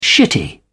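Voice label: "shitty", British English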